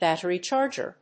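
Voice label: "battery-charger", English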